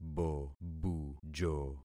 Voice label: "bobujo", concat syllables; Affixing experiment

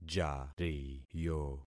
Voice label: "jariyo", concat syllables; Affixing experiment

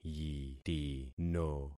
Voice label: "yitino", concat syllables; Affixing experiment

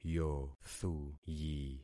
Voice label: "yothuyi", concat syllables; Affixing experiment

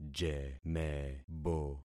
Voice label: "jemebo", concat syllables; Affixing experiment

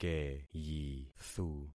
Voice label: "keyithu", concat syllables; Affixing experiment

